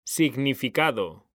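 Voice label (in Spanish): "significado", male; mekanta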